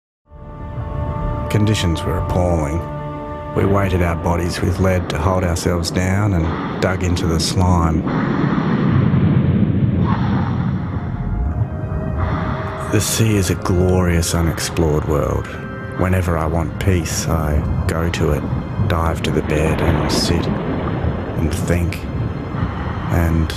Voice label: DOCUMENTARY

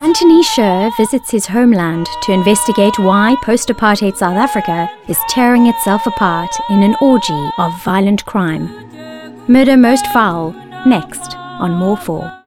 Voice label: TV Spot

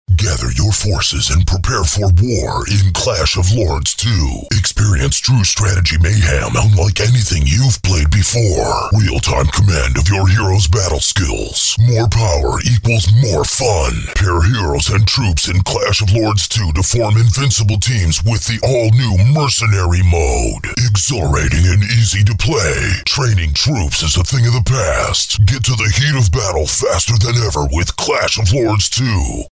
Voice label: VIDEO GAMES VO; CLASH OF LORDS 2

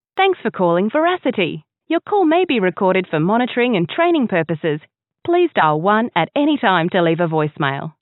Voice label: IVR friendly

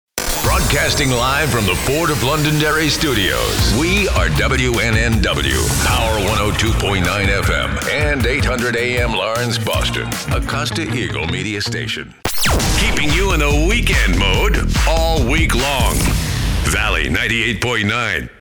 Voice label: Radio Imaging

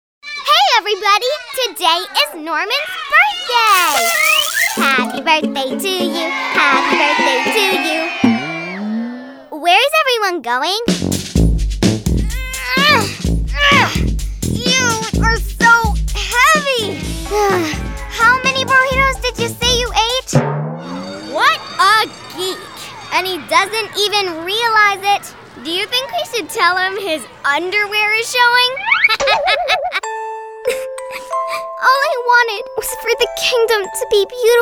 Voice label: Animation

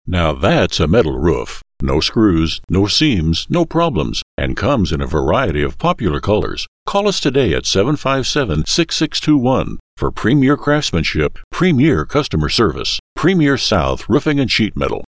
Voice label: TV 15 Second

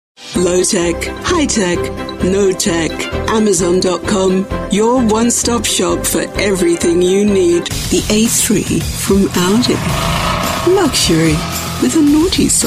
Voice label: 6-SECOND COMMERCIALS

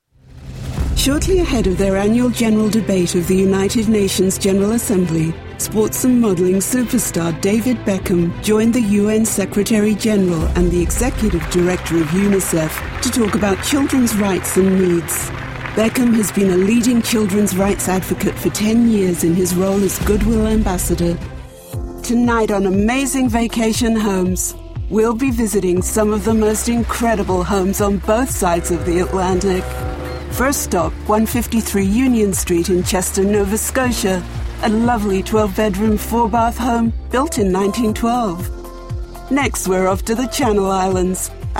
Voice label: Narration Documentary British Transatlantic

